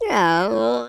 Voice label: "oops", London Cabbie; speech

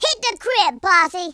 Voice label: runaway, Gangsta; speech

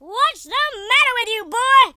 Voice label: ouch, Drill Sergeant; speech

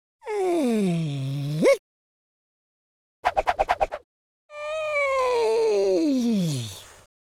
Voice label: idle, Scotsman; speech

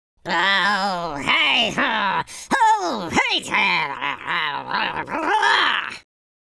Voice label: angry, Viking; speech

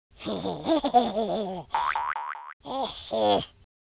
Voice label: taunt, American Wrestler

